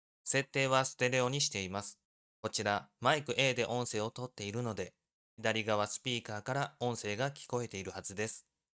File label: fifine m9 microphone